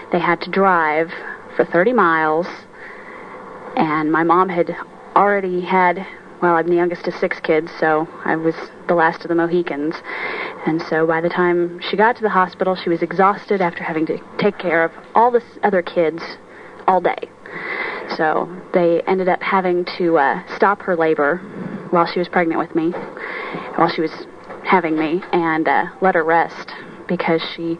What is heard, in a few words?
missouri, dialects